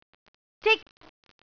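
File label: "zik1", Cantonese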